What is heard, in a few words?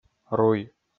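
"руй", Komi, male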